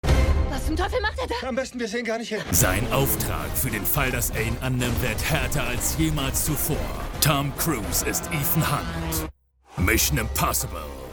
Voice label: German